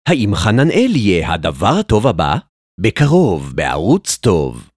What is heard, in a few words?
hebrew tv shows